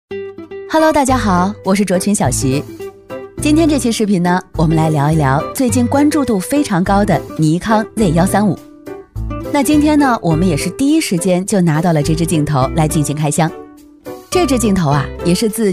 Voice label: 女 产品素人解说; 尼康

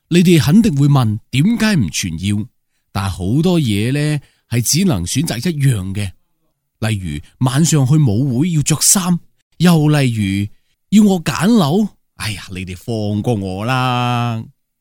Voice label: 男, 粤语角色, 富家少爷; 大气厚重; 素人自然

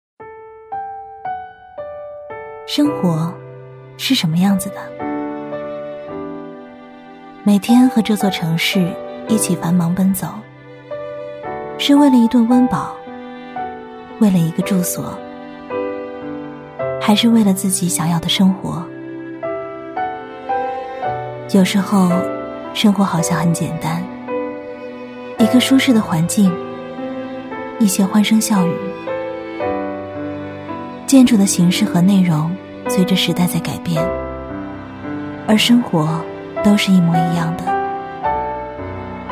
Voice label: 女 独白 伤感自然 生活; 低沉走心

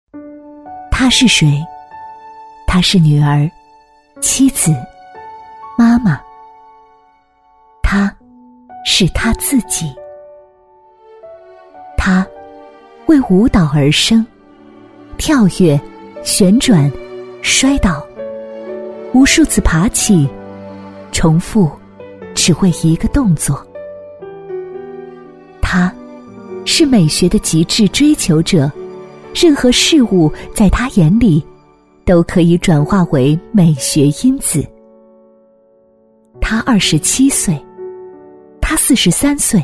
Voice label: 女 微电影旁白 女神节 温情舒缓; 女21-微电影旁白《她是谁-女神节》-温情舒缓; 女21-大气知性; 温柔知性

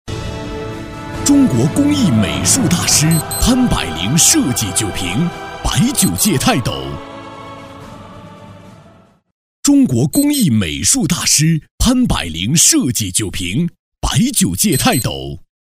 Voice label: 男 国语酒广告 大气高端; 大气厚重; 大气激昂; 潘柏林设计酒瓶

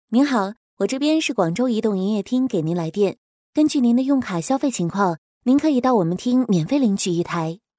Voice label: 女 电话群呼 自然感 素人

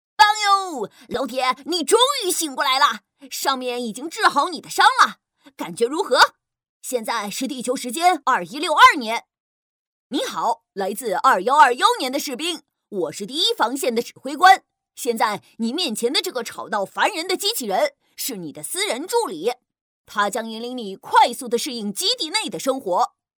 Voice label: 女, 男童; 勇敢小战士

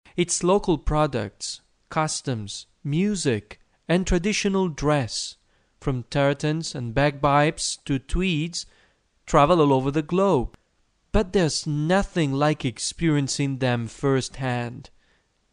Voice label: 意大利男声

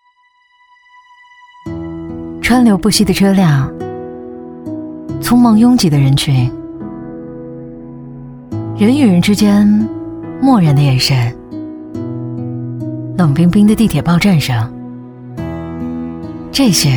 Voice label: 女, 微电影, 内心独白; 女29-大气磁性 质感磁性

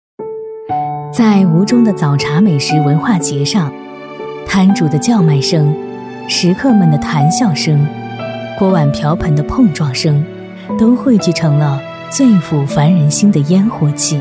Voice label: 女, 旁白, 大气稳重; 大气质感; 沉稳大气

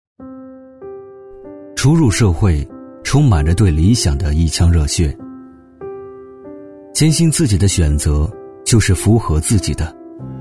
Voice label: 男 磁性走心; 新闻人的理想; 新闻播报

